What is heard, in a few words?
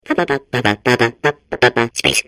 babble; portal2